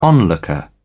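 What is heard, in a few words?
"onlooker", British English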